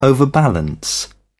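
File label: "overbalance", British English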